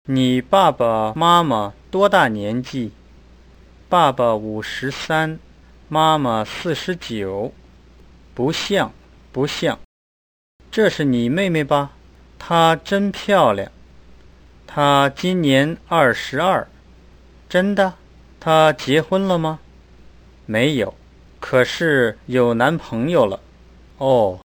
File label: dialog, Chinese